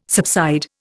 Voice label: "subside", Indian